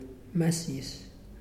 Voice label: "Sleep", Muyuw; Lae Unitech